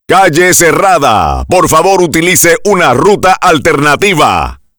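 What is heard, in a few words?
Road Closed Spanish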